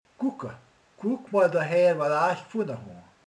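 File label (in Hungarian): "néz", Hungarian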